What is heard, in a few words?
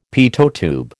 "Pitot tube", American